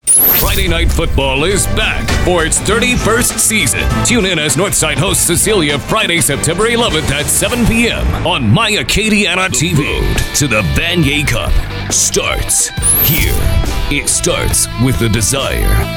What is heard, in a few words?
US English Male Sport Read